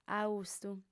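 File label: "austu", female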